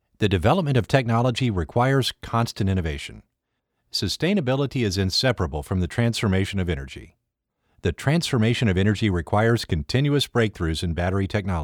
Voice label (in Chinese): 宣传片英文脚本